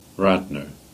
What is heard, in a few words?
Cornish; Radnor